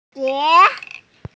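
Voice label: "hu2die2", Mandarin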